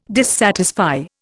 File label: "dissatisfy", Indian